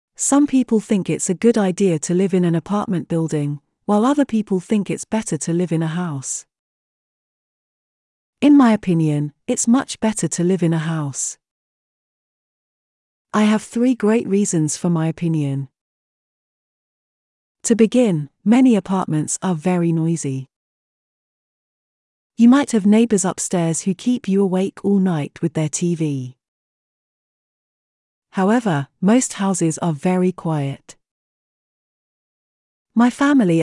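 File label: British; writing apartments opinions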